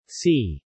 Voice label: "C", male

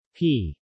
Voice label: "P", male

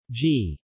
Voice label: "G", male

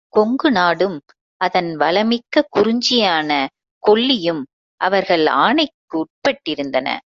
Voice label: Tamil; Meta mms ASR

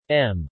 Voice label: M male

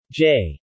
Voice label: "J", male

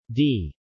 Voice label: "D", male

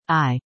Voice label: "I", female

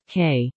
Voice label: "K", female

